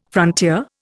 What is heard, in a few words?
"frontier", Indian